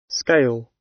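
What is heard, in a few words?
"scale", British; Flora and fauna, agriculture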